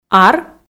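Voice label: "ар", female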